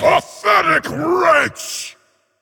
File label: Male Pit Lord Attack